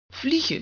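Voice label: "vliegen", Dutch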